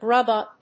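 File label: "grub up", English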